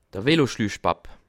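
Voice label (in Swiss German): "veloschluch", Bas Rhin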